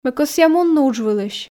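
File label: Komi sentences, female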